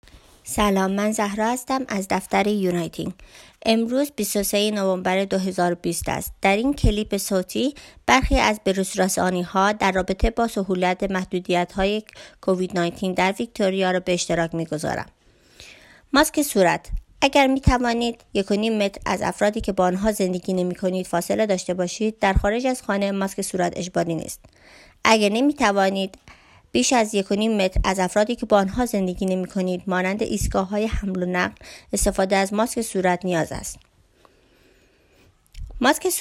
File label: Updates Audio Dari Persian; covid-19